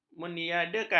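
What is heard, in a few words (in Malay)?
"meniadakan", Malay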